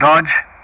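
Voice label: "Dodge", English; Ka-50; Player Callsign; DCS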